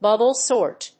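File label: "Bubble sort", English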